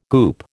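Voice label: "goop", American English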